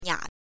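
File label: "nia", Mandarin Chinese, neutral tone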